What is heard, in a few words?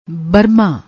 "barmaa", Punjabi